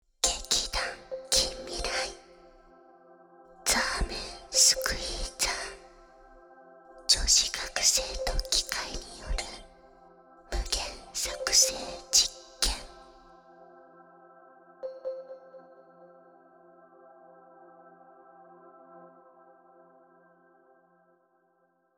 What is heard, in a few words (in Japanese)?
タイトルコール; ASMR; Semen squeezer／女子学生と機械による無限搾精実験